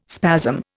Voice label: "spasm", Indian